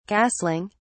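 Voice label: "castling", British English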